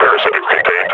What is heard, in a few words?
announce kill antlion, combine grunt; Half Life Model Pack